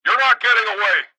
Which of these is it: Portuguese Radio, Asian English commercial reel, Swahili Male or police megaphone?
police megaphone